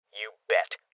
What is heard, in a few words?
Voices Confirmation Male Young; Rebel Cops